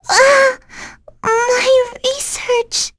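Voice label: Vox Dead, voices heroes English; Annette; kings raid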